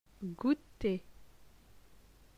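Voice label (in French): "Gouter", French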